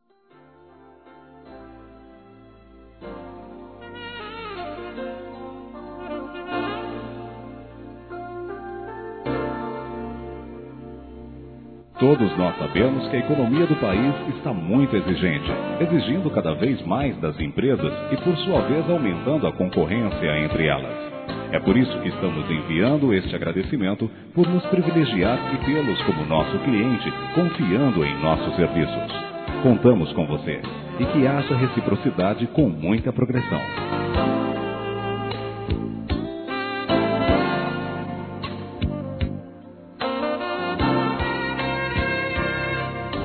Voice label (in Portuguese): Telemensagens Empresarial masc; AGRADEC.A CLIENTE; Telemensagens 2017